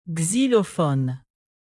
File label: "xylophone" French native; alphabet fr